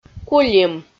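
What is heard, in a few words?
"кулим", Komi, female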